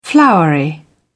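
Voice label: "flowery", British English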